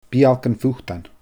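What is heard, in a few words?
"beul gun phutan", Scottish Gaelic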